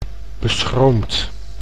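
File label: "beschroomd", Dutch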